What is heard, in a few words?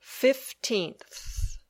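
"fifteenth", English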